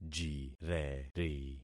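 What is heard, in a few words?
"jireri", concatenated syllables; Affixing experiment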